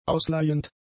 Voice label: "ausleihend", German; adjectives